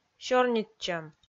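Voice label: "сёрнитчӧм" Komi, female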